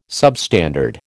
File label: "substandard", American